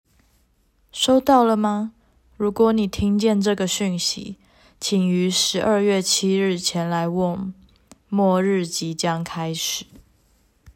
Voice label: Emergency message